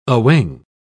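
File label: "awing", British English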